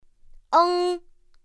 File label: "ēng", pinyin; pinyin2; pinyinji